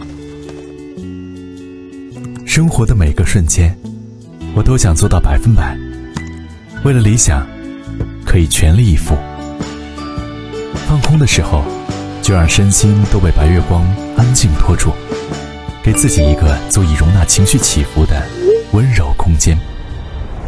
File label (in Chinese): 男 广告走心温柔; 芝华士